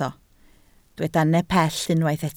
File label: "nepell", Welsh